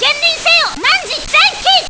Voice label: intro voice; kishin douji zenki